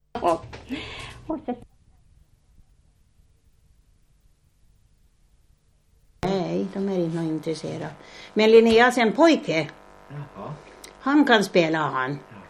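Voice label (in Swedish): Norrby Uppland, dialekter; Svenska sagor; Folkminnen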